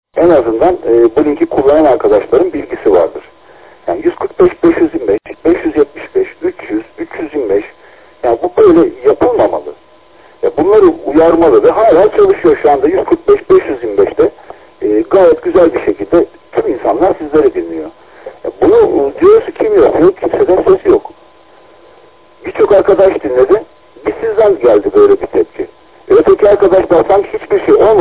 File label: QSO; KONFERANS ODASINA SATASMALAR